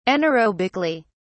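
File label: "anaerobically", British English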